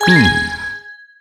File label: exclamation; layton